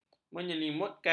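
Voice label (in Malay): "menyelimutkan", Malay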